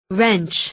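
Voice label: "wrench", English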